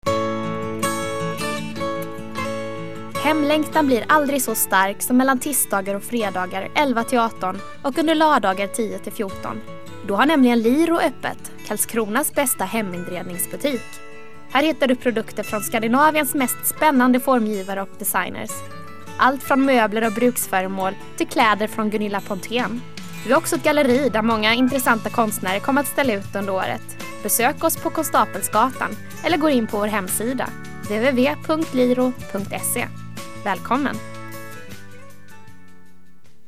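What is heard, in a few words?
radio advertisement; liroreklam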